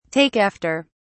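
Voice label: "take after", British English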